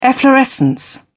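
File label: "efflorescence", British English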